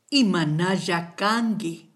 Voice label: how are you, kichwa